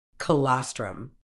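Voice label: "colostrum", British English; kəˈlɒs.trəm; kuh-LOSS-truhm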